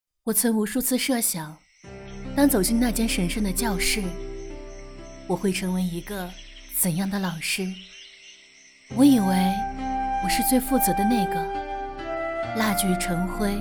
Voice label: 教师节温暖抒情

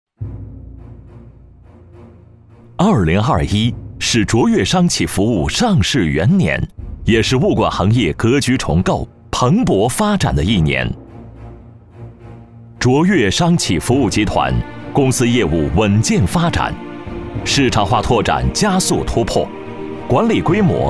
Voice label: 男 国号 商企服务; 卓越商企服务

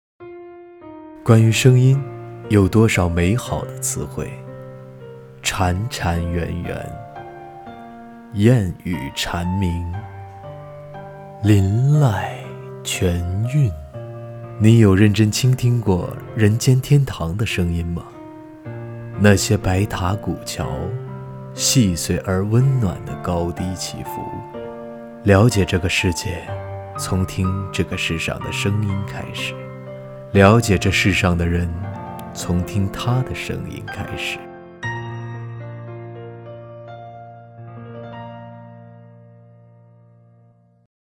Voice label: 声音男

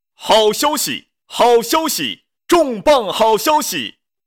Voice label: 广告音

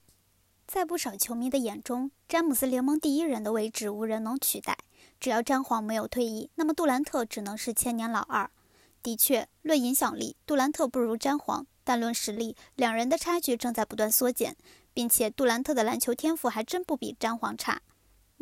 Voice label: 短视频解说

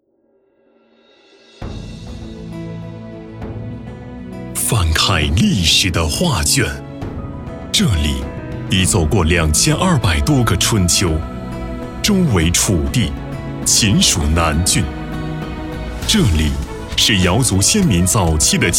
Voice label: 大气厚重宣传

